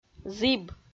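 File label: "зіб", Komi-Zyrian, female; audio dict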